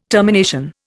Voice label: "termination", Indian